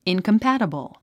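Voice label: "incompatible", English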